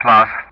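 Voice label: "plus", English; Ka-50; DCS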